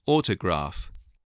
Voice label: "autograph", english

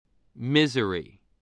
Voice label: "misery", voice, spelling

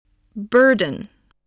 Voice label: "burden", spelling

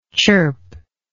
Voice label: "chirpingly", English

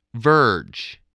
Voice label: "verge", English

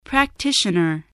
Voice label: "practitioner", voice, spelling

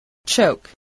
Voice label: "choke", English, voice

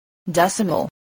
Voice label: "decimal", English

